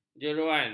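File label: "jeroan", Malay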